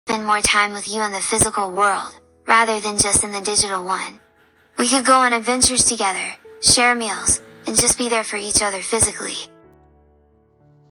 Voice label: replika voice